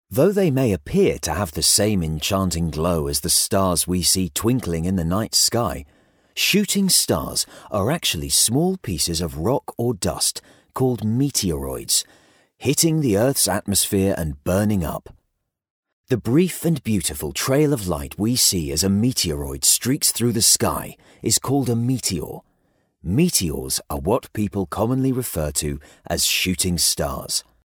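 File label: British English, male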